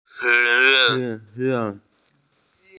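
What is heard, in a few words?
"October", Mandarin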